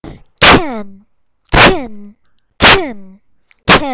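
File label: "can", spoken word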